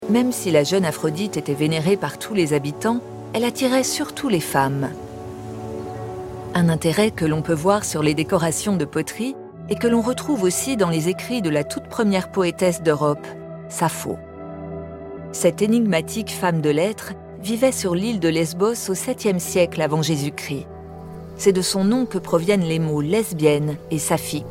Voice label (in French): doc narration sensuelle posee; VENUS DEVOILEE